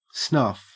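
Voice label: "snuff", Australian English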